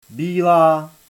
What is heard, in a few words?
white Czech